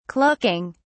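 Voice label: "clucking", English, British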